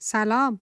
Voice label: female hello, persian